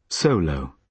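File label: "solo", British English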